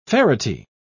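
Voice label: "ferrety", English, British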